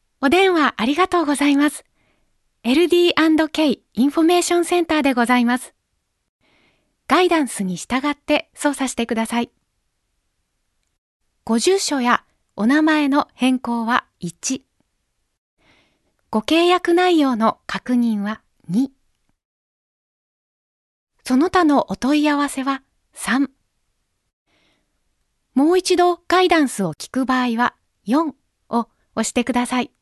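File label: Voice Sample Narration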